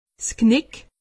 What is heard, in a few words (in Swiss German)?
"knick", alsace; medecine